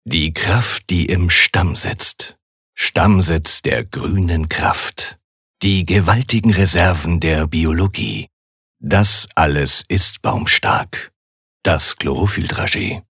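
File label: advert, gentle; Werbung; Sanft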